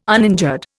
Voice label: "uninjured", Indian

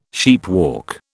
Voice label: "sheepwalk", British

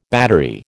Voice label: "battery", American

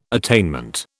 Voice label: "attainment", British

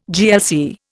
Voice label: "GLC", Indian

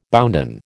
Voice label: "bounden", American

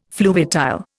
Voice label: "fluviatile", Indian

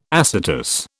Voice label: "acetous", British

